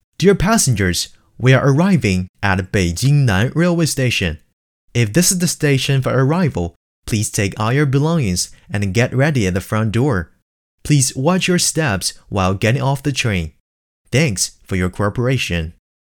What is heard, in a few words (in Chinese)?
英文广播